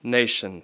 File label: "nation", English